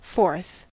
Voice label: "forth", us

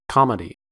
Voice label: "comedy", us

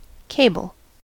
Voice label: "cable", us

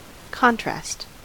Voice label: "contrast", us

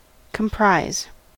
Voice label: "comprise", us

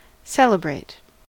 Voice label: "celebrate", us

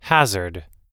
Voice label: "hazard", oxford

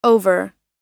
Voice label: "over", oxford

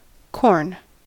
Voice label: "corn", us

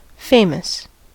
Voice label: "famous", us

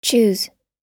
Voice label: "choose", oxford

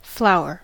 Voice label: "flower", us

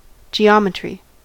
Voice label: "geometry", us

